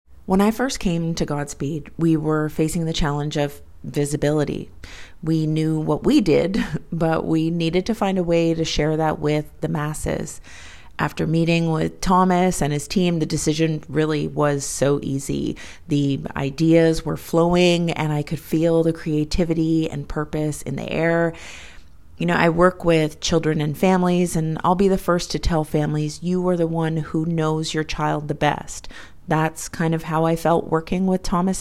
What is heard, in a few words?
Testimonial; LIFT